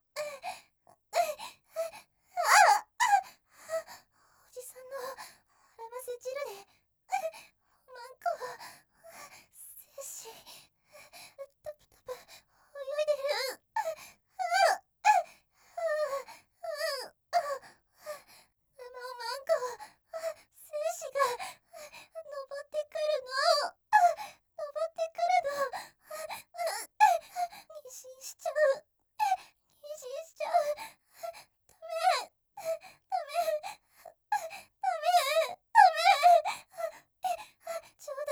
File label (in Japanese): 絶頂 余韻 精子が泳いじゃう 淫語実況